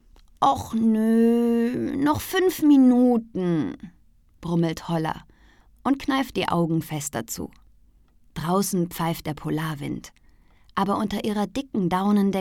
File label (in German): Kinderbuch short